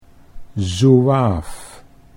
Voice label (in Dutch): "zouaaf", Dutch